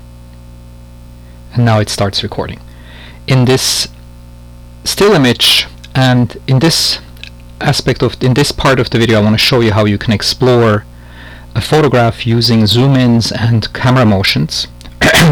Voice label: Narration